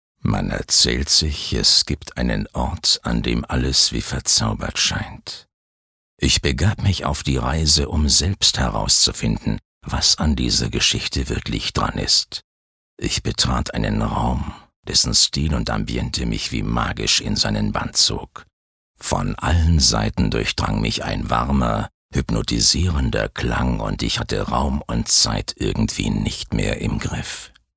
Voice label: Schauspiel Rau

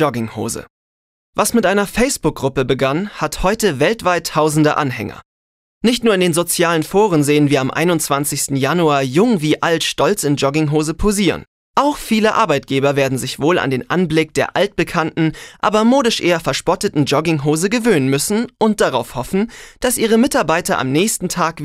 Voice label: Feiertag Freundlich

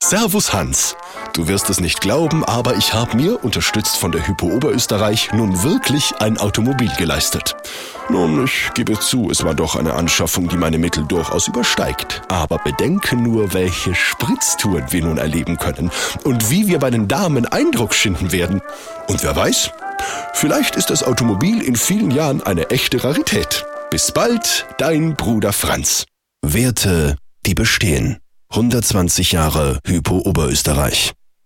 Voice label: Dialekt Österreichisch

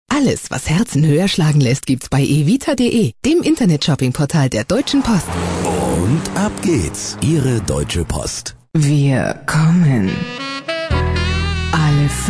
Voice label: Werbung Freundlich

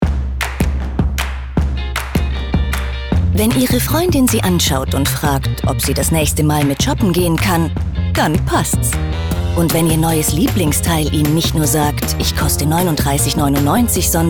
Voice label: Geheimnisvoll

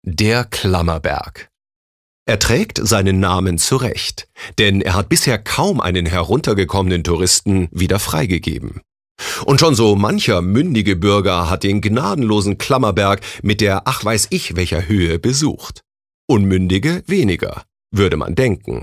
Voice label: Kommentar, Variabel

